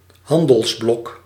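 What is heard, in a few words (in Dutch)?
"handelsblok", Dutch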